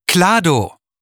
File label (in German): Ansage Kladow